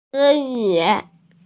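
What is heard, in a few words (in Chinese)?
"ci2yu3", Mandarin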